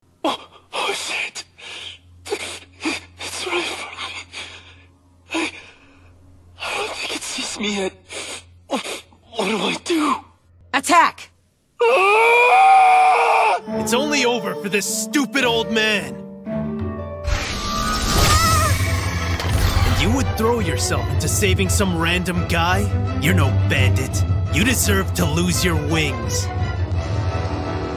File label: english canadian video games